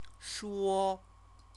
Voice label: "shuo", Chinese; speak; verb

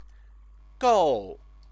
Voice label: "gou", Chinese; Chinese lessons

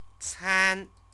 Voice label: "can", Chinese; CTCFL; University of Oxford

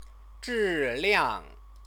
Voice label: "zhiliang", Chinese

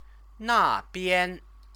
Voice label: "nabian", Chinese

